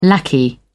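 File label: "lackey", British English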